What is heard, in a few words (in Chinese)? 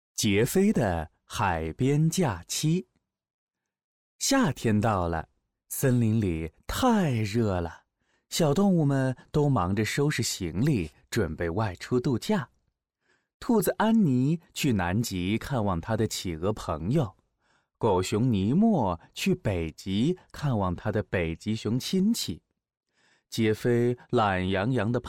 讲故事; 凯叔讲故事